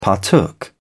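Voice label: "partook", British English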